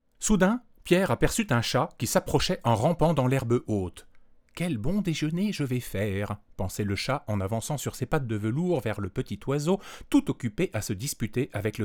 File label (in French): test micro tlm103 lecture enfant